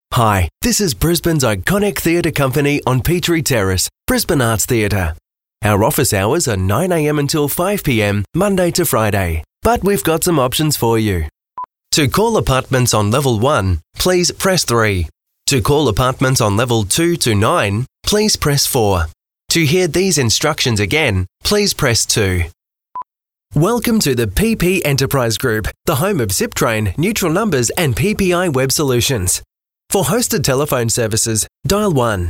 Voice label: english australian ivr